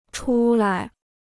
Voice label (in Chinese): "出来", Chinese